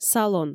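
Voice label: "салон", Komi, female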